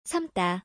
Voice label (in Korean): "삶다", Korean; Korean words about cooking